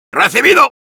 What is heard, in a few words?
positive vocalization, es